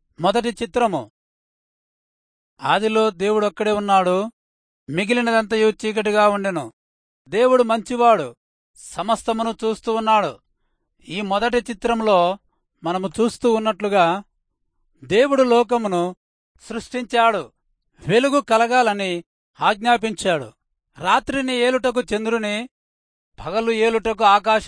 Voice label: telugu rayalseema; good news